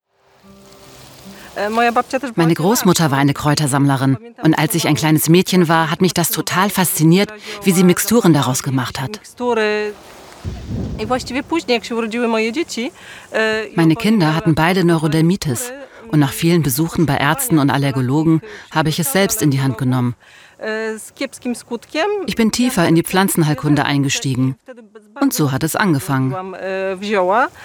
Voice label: voice over; NDR; LOFT VOICES

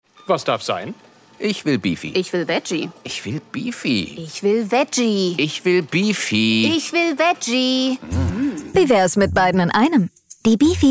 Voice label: Spot; Edeka; Bifi

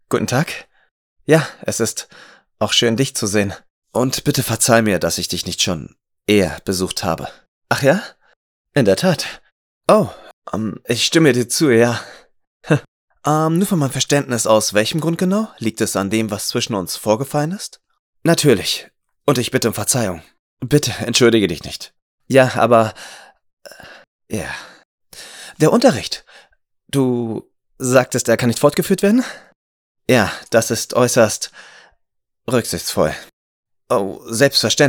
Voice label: FanDub, Scene, German; Bridgerton (nicht offiziell)

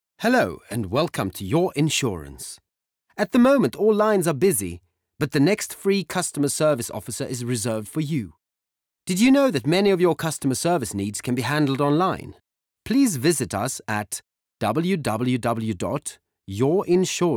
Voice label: English Telephone waiting loop

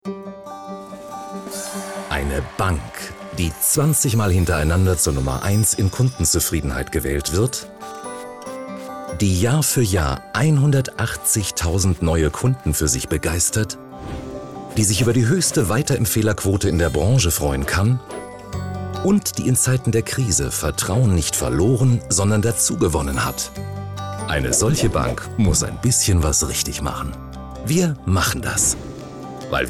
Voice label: story voice german; voz german; sparda-bank; best friend of sparda-bank

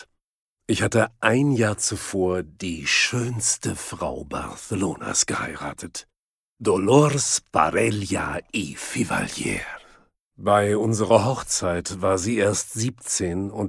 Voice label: BIOGRAPHY DOCU HISTORY GERMAN VOICE OVER; Doku, Tale (Erzählung), Audio Drama (Hörspiel); Guillem d’Areny-Plandolit

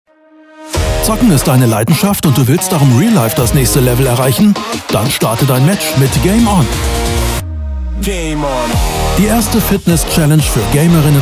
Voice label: Spot; Game On

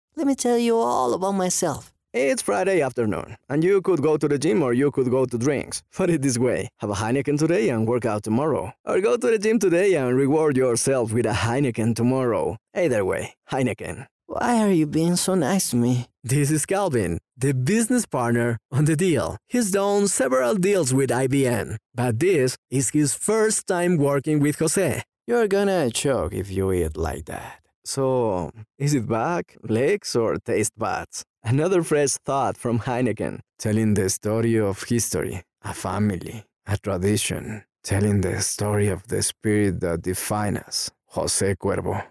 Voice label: English Latino Commercial

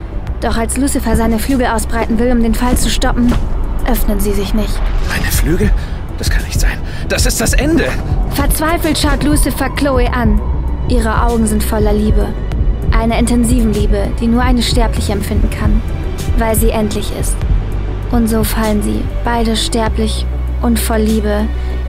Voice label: Comedy, Comment, Commercial, German; Lucifer - Prime Video

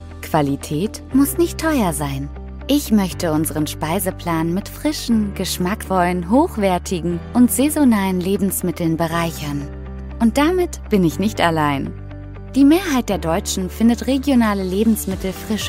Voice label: Voice Over; Thueringer Qualitaitszeichen

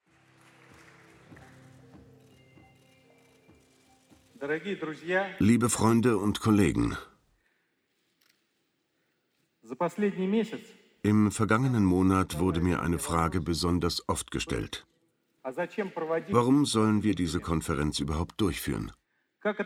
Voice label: vo doku; chodorkowski; LOFT VOICES

